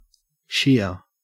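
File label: "sheer", Australian English; pronunciations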